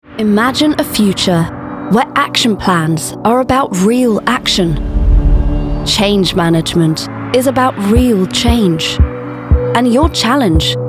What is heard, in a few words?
Corporate Reel